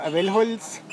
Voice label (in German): Dialekte; GHV Dialekte 2018